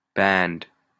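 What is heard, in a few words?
"band", Canadian English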